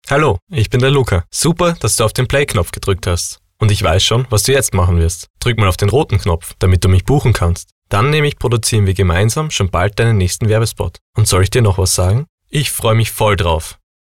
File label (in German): Kinder Neutral; Kinderstimmen